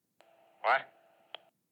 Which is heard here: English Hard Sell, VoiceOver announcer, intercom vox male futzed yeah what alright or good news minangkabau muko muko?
intercom vox male futzed yeah what alright